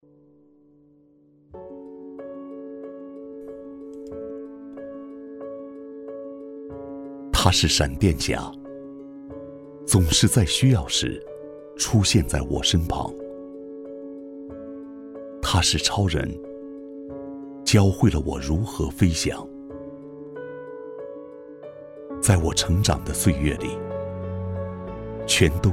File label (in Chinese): 男,走心 TVC,温情走心; 父亲节